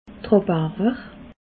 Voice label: "rahbarwer", alsace

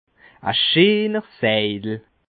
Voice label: "scheener", alsace

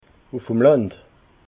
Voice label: "land", alsace

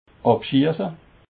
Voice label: "abschiesse", Alsace

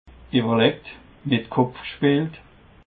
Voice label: "ewerlegt", alsace

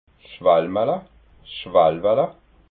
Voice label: "schwalmala", alsace

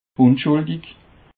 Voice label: "unschuldich", Alsace

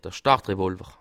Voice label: "start", alsace

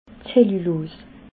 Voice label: "zellulos", alsace

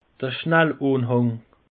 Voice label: "schnallanhang", alsace; peche

